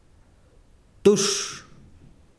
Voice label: besiro agua, chiquitano